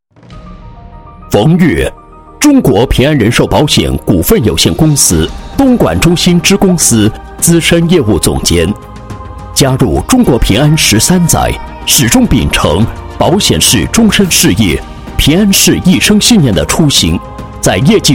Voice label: 男 企业, 配音; 中国平安人寿保险

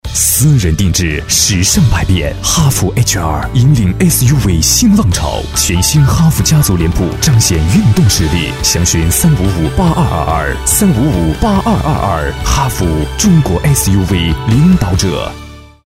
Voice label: 男 广告 洋气 品质, 国语男声配音 大气沉稳; 长城汽车

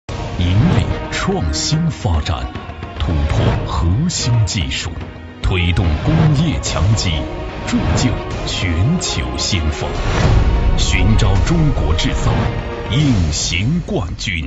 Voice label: 男 寻找中国制造隐形冠军

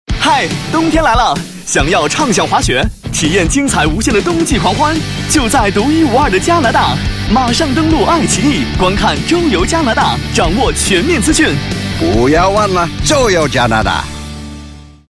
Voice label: 男 广告 旅游 激情年轻, 国语男声配音; 加拿大

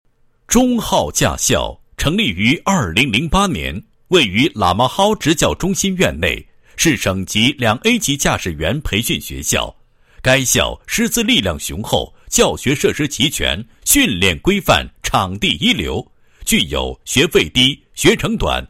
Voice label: 男-学校, 配音案例; 敖汉中昊驾

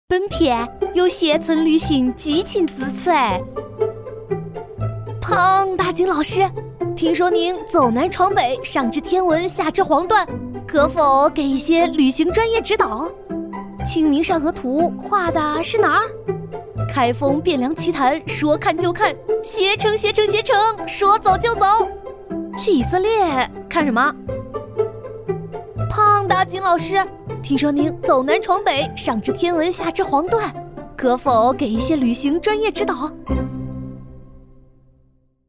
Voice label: 搞笑幽默, 国语女声配音; 飞碟说-晓松奇谈之旅行

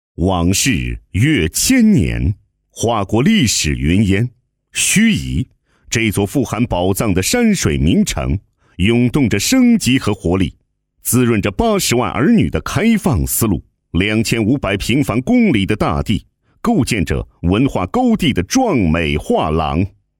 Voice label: 男, 政府创建; 盱眙县创建省级公共文化服务体系示范区配音

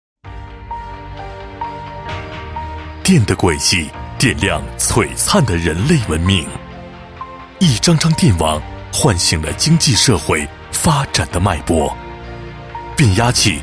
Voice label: 男 产品介绍 宣传片; 华鹏500kV变压器产品

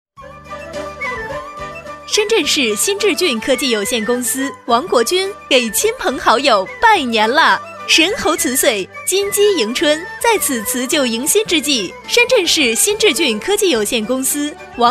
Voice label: 女 公司拜年, 国语女声配音 彩铃广告

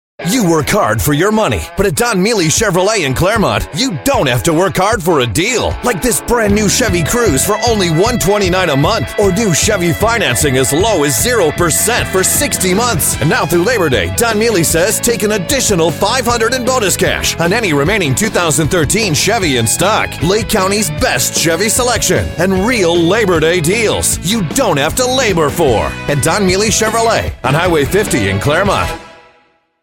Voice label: 外籍男, 商业广告, Commercial; Mealey Chevy

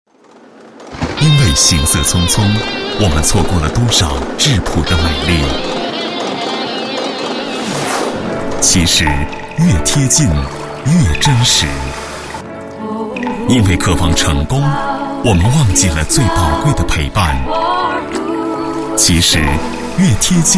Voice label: 男3-广告-招商, 国语男声配音

